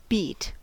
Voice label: "beat", us

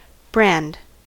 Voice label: "brand", us

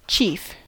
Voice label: "chief", us

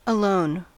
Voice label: "alone", us

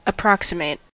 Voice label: "approximate", us

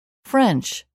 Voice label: "French"

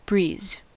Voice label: "breeze", us

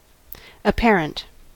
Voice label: "apparent", us

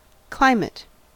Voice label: "climate", us

